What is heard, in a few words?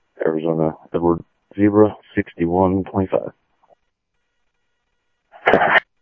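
Sheriff West County, scanner audio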